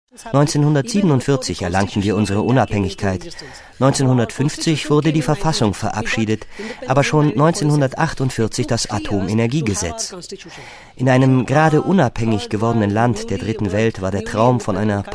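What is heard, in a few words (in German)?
commentary, Neutral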